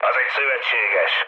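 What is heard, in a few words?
Hungarian player friendly fire mood low, speech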